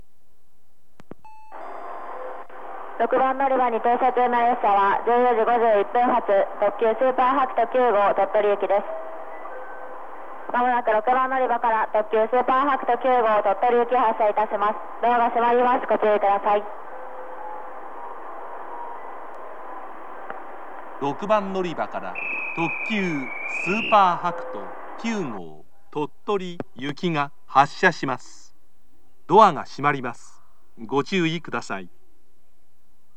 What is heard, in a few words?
limited express tottori, station, kyoto; sp-hakuto9